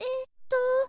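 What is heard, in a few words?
girl, "eeto"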